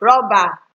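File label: "rubber", Nigerian Pidgin, female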